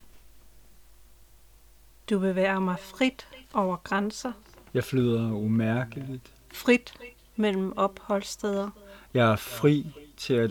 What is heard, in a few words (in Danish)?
samtale med Hoejtaler ekko; forladesoufflé; foerste